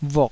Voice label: "vuk3", taiwanese